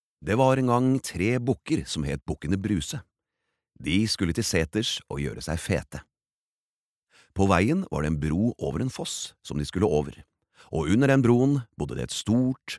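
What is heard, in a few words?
Norwegian children's book; barnebok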